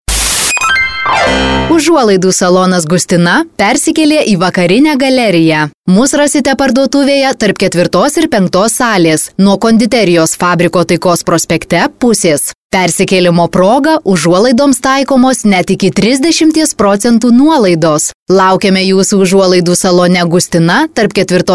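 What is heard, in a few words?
lithuanian female commercial; voice demos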